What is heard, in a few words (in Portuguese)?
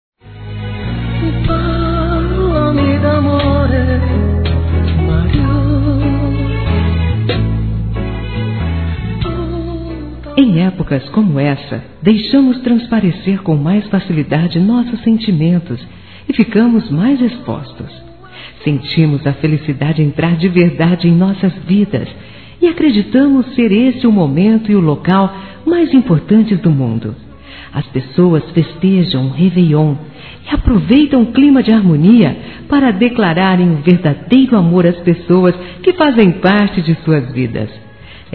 ANO NOVO ROMANTIC. F